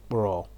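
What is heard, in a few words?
"kpro", Nigerian Pidgin, male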